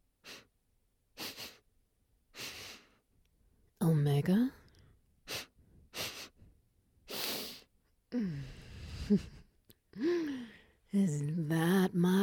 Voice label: SFX Raw